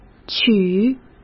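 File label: "娶", Mandarin Chinese; chinese flashcards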